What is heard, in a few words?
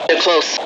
detect telltale, F Voice English